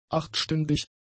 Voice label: "achtstündig", german; adjectives

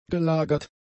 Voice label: "gelagert", German; adjectives

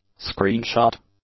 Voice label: "screenshot", English

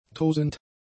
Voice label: "tosend", German; adjectives

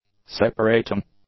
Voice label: "separating", English; adjectives

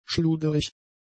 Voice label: "schluderig", German; adjectives

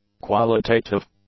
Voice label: "qualitative", English; adjectives

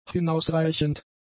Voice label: "hinausreichend", German; adjectives

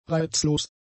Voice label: "reizlos", German; adjectives

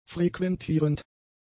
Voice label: "frequentierend", German; adjectives

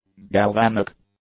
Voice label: "galvanic", English; adjectives

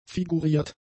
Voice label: "figuriert", German; adjectives

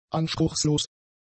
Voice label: "anspruchslos", German; adjectives

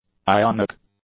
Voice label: "ionic", english; adjectives

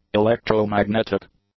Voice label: "electromagnetic", English; adjectives

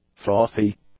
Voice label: "frothy", English

